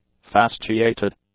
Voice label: "fasciated", english; adjectives

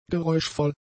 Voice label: "geräuschvoll", German; adjectives

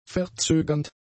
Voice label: "verzögernd", German; adjectives

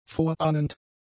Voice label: "vorahnend", German; adjectives

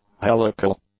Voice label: "helical", English; adjectives

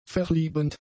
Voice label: "verliebend", German; adjectives

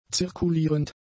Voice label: "zirkulierend", German; adjectives

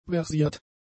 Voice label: "versiert", German; adjectives

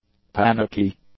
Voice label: "panicky", English; adjectives

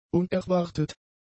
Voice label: "unerwartet", German; adjectives

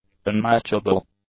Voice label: "unmatchable", English; adjectives

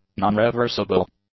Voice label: "nonreversible", english; adjectives

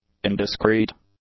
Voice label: "indiscreet", English; adjectives

